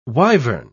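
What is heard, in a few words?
"wyvern", English, British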